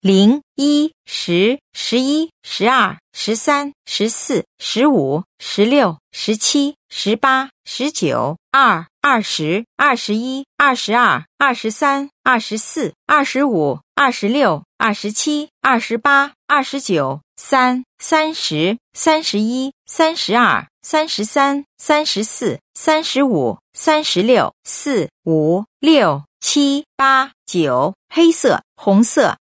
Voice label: Dealer Voice, sk, Roulette; real Dealer European Roulette Vintage; zh-cn